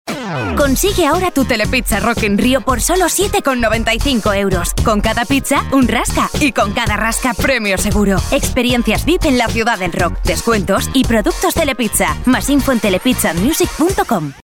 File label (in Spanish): Femenino